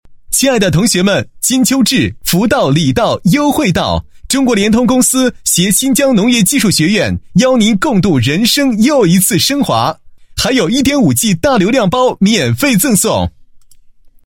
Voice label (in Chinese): 男 促销活动 宣传; 联通促销活动宣传